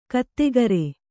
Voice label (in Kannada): "ಗೊತ್ತುಗುರಿ", kannada